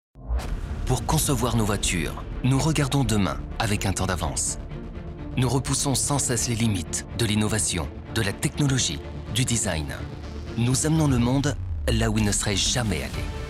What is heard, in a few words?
french european male commercial